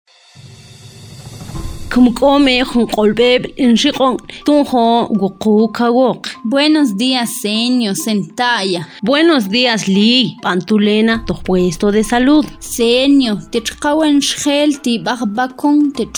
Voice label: SPOT MAM